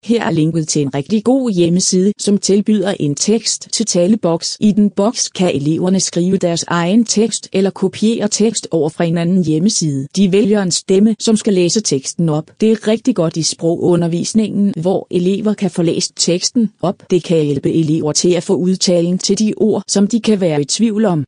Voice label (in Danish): Dansk